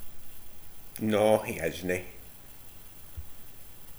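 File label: "isnae", slang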